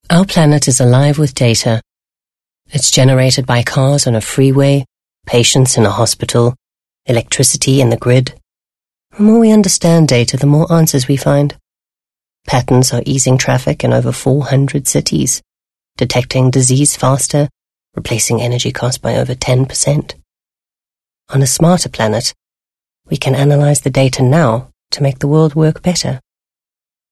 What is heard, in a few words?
English soft sell